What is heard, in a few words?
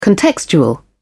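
"contextual", British English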